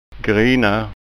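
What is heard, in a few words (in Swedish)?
"grina", Scanian dialect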